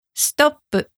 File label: "stop", Japanese